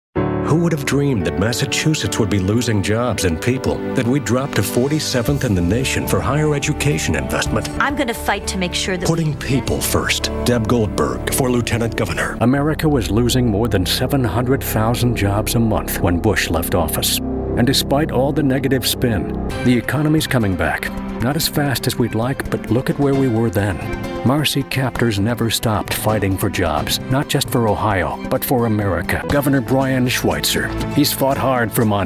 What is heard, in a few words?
Positive, political